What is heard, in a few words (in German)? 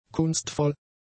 "kunstvoll", German; adjectives